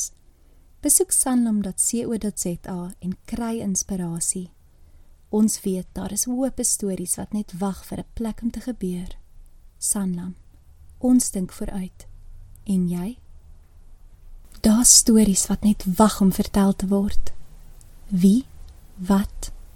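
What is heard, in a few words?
Afrikaans, Narration; GoLocalise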